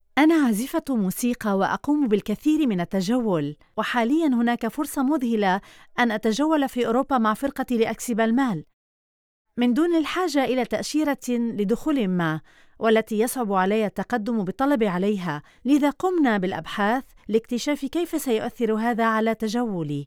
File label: Documentary